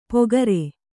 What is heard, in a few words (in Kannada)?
"ಪೊಗರೆ", Kannada